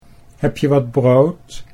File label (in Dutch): "heb je wat brood", Dutch